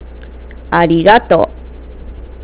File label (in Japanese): "arigato", nagoyaben